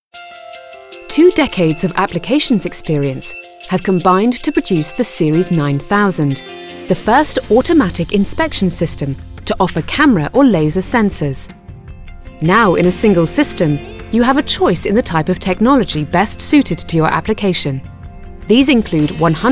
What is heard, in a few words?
NARRATIVE